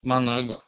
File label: "managa", Proto-Germanic